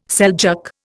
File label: "Seljuk", Indian